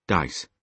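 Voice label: "dice", British English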